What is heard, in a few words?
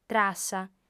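"trassa", Sardinian, female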